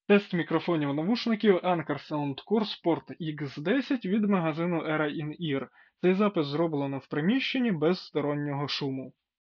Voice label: Soundcore Sport X10 Indoor

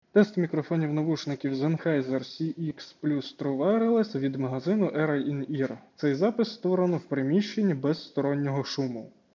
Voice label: Sennheiser CX Plus Indoor

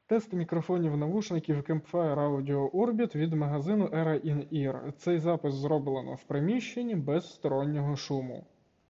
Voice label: Campfire Audio Orbit Indoor

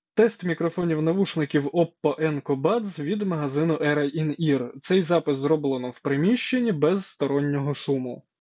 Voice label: Oppo Enco Buds Indoor

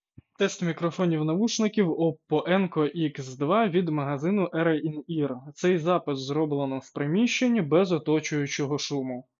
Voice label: Oppo Enco X2 Indoor

